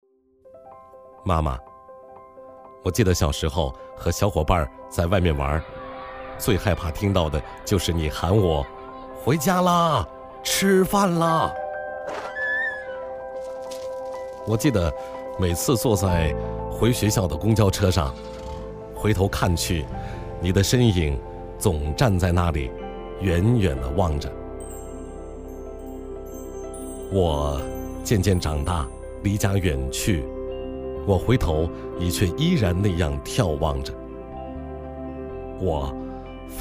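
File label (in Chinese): 男旁白母亲暖心; 男365旁白母亲暖心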